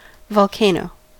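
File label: "volcano", American English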